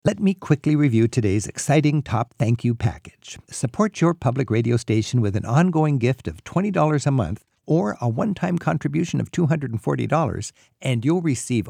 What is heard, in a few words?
premium pitch vox